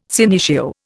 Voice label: "senecio", Indian